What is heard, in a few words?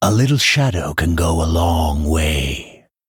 Male Night Elf Play